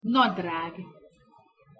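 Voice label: "nadrag", Hungarian pronunciation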